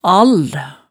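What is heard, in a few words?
"all", Hemsedal dialect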